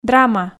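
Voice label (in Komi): "драма", Komi, female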